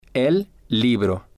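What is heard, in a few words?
"el libro", Spanish, male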